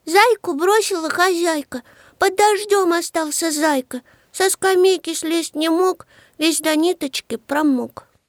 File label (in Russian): Diktori studii; Bashnya; Zaychik